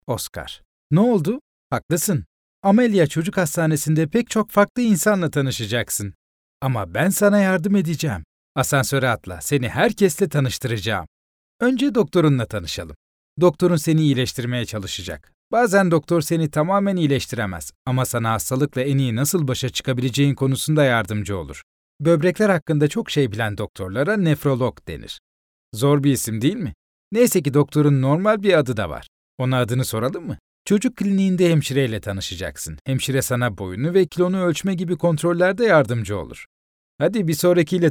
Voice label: Young Character

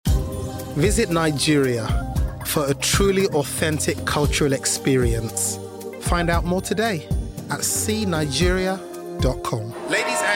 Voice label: Commercial

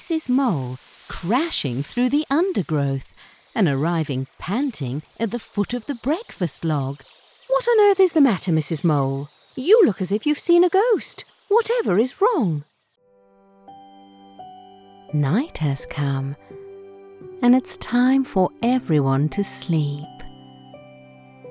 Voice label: Childrens Story

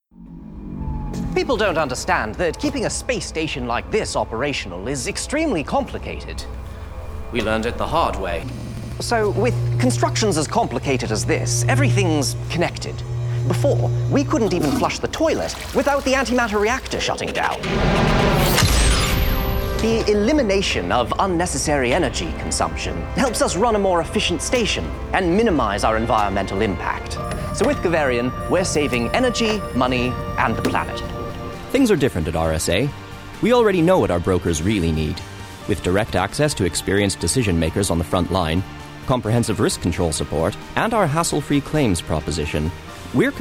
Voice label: COMMERCIALS

